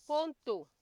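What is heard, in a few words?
"ponto", Nigerian Pidgin, female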